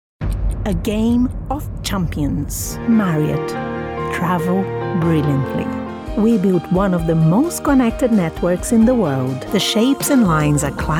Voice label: brazilian female english soundbites

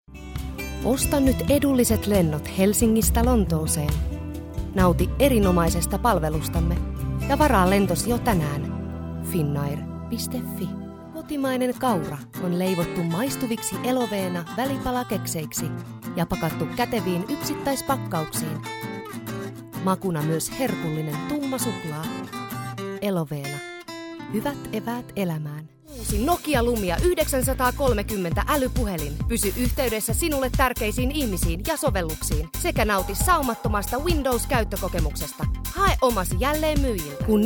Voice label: finnish female commercial

